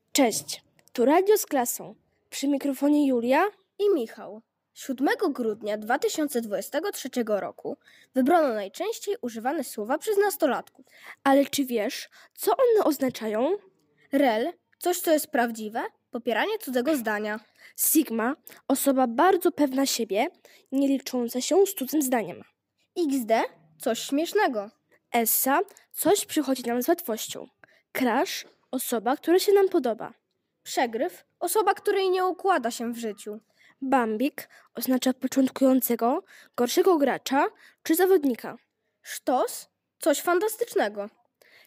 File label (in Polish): Mlodziezowy slang